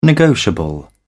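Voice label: "negotiable", British English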